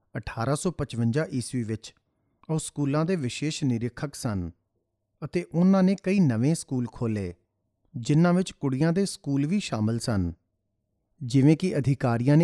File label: Punjabi; foreign languages